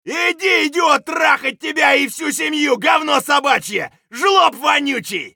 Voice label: scav battlecry; eft